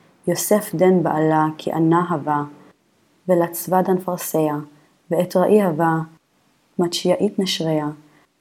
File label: Matthew Reading Practice, Aramaic